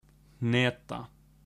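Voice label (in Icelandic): "hneta", Icelandic; matarhefti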